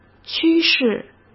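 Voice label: "趋势", Mandarin Chinese; hsk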